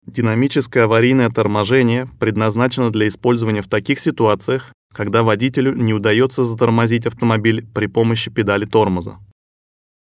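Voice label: russian male narration; voice demos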